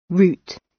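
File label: "root" British English; Time, history; Thời gian, lịch sử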